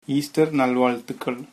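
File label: easter, tamil, male; ஈஸ்ட்டர் நல்வாழ்த்துக்கள்; Easter nal vaazthukkal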